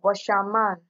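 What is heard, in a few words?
"washer man", Nigerian Pidgin, female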